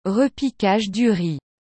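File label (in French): "repiquage du riz", French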